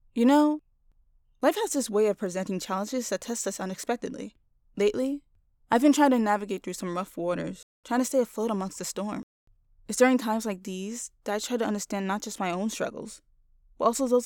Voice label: Adult Female